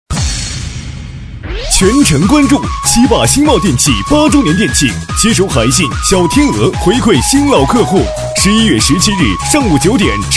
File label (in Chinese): 促销广告